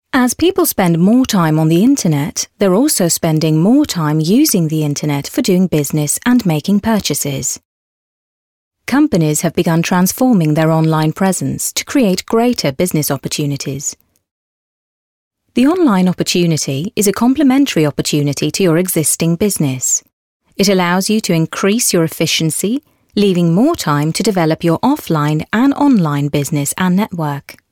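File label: British English, female, informative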